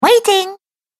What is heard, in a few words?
Cartoon Girl Waiting, cartoon voice